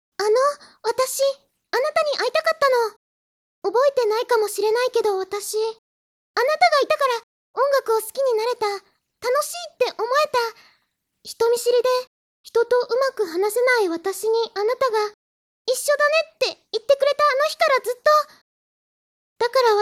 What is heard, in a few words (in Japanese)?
大人しい女の子